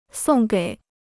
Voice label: "送给", Chinese